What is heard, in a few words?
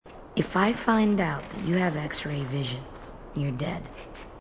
female marine